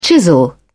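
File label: "chisel", English, British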